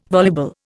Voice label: "voluble", Indian